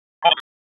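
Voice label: Dispatch 1, P25; wake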